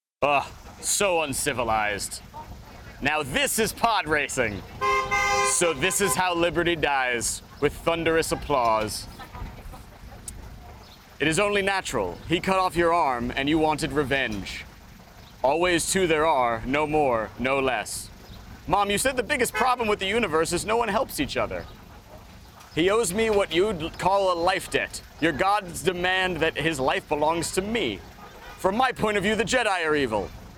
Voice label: Traffic Lav Cedar; cedar-demo